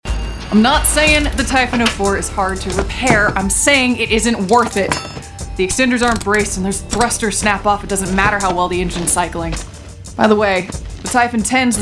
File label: english american video games